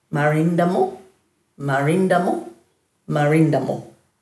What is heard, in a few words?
"marindamu", Dhurga